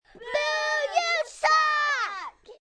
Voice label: Group You Suck, Boo, small group, 2-4 young children; (human voice)